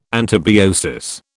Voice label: "antibiosis", British